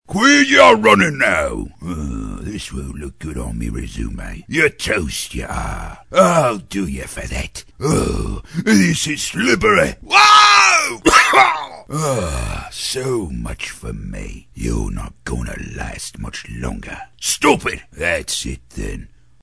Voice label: Pirate Big & Gruff; animation

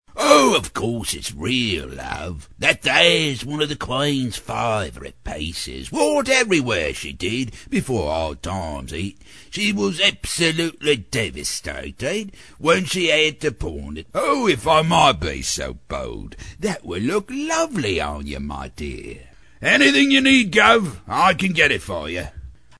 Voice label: Cockney, Pirate Trader, Deep; animation

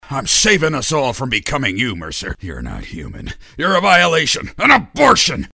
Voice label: Major, Mean & Dedicated; animation

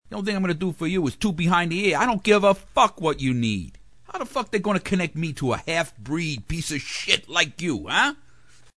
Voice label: MOB GUY - N.Y. Wiseguy; animation

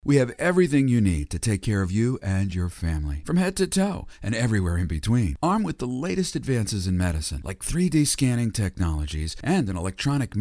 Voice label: Medical, Warm & Trusting; commercials

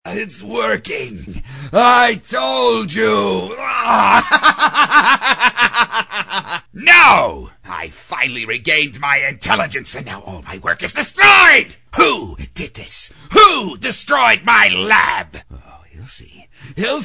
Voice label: Psychotic Scientist; animation

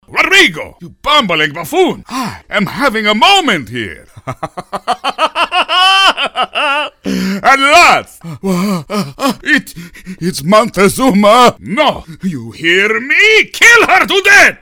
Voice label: Treasure Hunter, Pompous & Foolish; animation

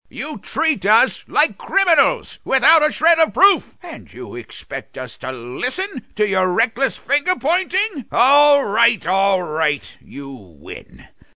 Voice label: Villager Angry & Old; animation

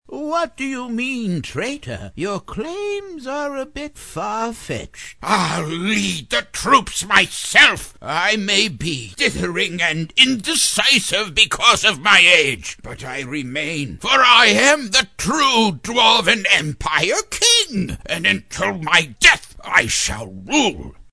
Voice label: King, Feeble & Forthright; animation

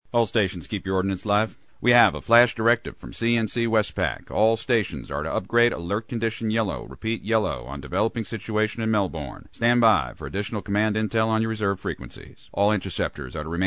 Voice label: Command Control, Flat, Direct, & Unemotional; animation

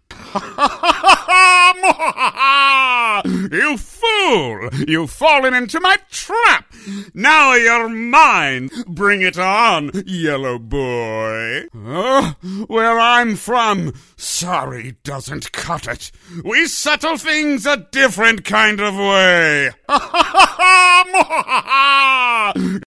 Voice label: VILLAIN - Over the top Vaudville, cartoon; animation

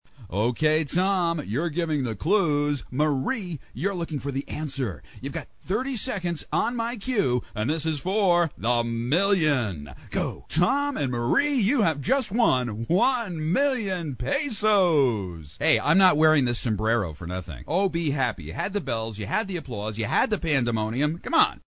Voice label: Game Show Host; commercials

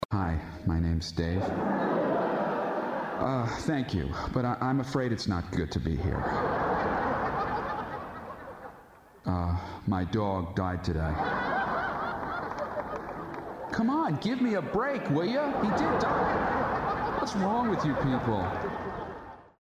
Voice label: COMIC Deadpan, commercials

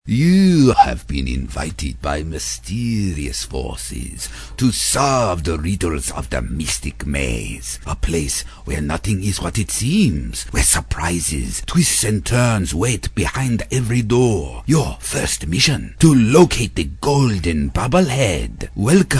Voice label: Ancient Narrator, Asian & Mysterious; animation

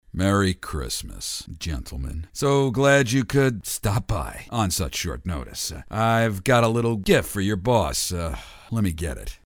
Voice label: HERO Downtrodden; animation

